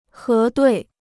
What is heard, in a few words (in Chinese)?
"核对", Chinese